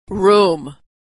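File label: "room", American English; vowel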